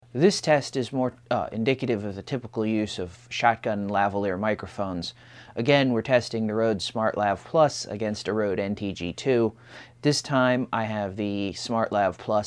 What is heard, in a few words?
Test Boom Case SmartLav+; rode